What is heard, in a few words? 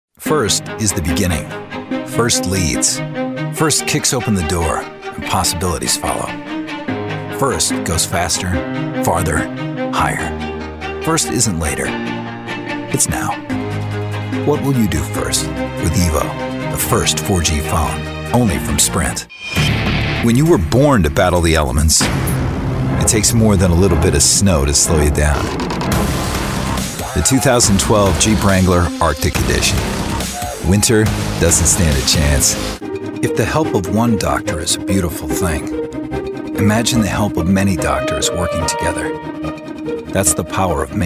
Commercial